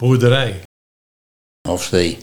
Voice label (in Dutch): "Hostee", Nieuw lekkerlands dialect; boerderdij